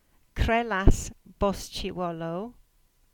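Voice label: "Bosullow Trehyllys", Cornish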